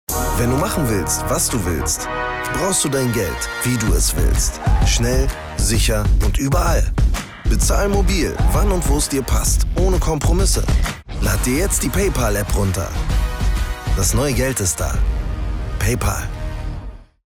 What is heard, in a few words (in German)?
sprecher spot; paypal